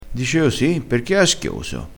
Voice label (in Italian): "aschioso", crespinese